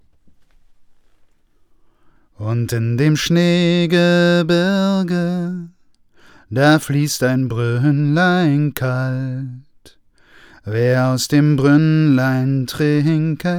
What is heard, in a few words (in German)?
AKG C414 nah